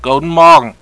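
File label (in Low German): "godmorg", Plattdüütsch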